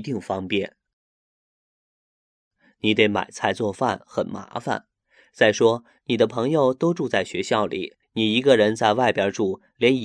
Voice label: narrative